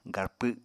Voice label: "garpw", Apatani

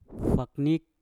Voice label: "waknik", Mpur; burung hantu

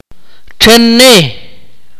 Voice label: "few", Akateko

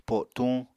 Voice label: "po7tuñ", Apatani

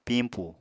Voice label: "pimpù", Apatani; [pimpù] n. tiffin; light meal

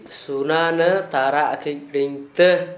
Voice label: glittering jewel, Gta, Dialect: Hill; suna=nǝ taraʔkig=ɽiŋ=tǝ; glittering of jewels

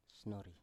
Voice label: "snori", Biak

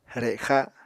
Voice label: "rexa", Apatani

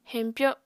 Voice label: "heñpyo", apatani

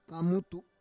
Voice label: "kamutu", Roon

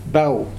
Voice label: "Pau", futuna aniwa; Mission Bay

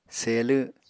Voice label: "selw", Apatani

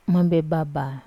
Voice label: "mambebaba", Wamesa; cousin

